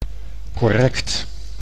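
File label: "correct", Dutch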